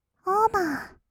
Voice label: "オーバー", kawaii voice; ASMR; 1200 kawaii voice!!; 音ゲー

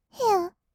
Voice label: "へう", kawaii voice; ASMR; 色々なワンショット

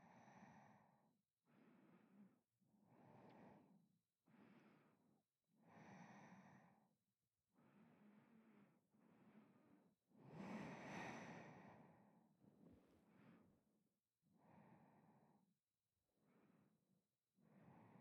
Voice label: 添い寝; ASMR; ネーナと一緒に添い寝

